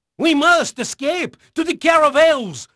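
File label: Portuguese male, voice; Pirates Of The Caribbean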